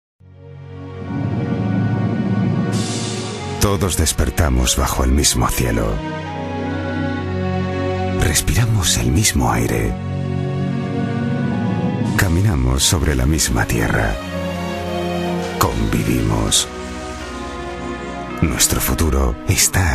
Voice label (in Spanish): Masculino; Sonoarte